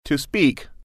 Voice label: "speak", English